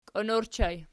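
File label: "kion" ergative plural, Archi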